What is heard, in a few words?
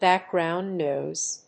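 "back-ground noise", English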